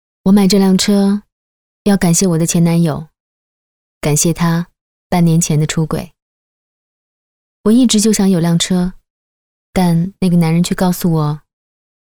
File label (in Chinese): 女 旁白 模仿 二手车 素人 自然 去播音腔